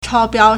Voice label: "chao1biao1", Chinese

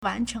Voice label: "wan2cheng2", Chinese

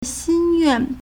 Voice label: "xin1yuan4", Chinese

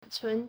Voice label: "cun2", Chinese

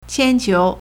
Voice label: "qian1 qiu2", Chinese

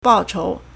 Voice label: "bao4chou2", Chinese

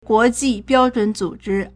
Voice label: "guo2ji4biao1zhun3zu3zhi1", Chinese

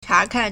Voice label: "cha2kan4", Chinese

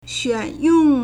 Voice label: "xuǎnyòng", Chinese; 选用 (選用); verb; to select and use

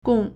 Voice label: "gong4", Chinese

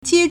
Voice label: "jie1", Chinese

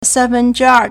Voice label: "san1 fen1 zhi2 er4", Chinese

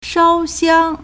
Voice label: "shao1xiang1", Chinese

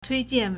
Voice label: "tui1jian4", Chinese

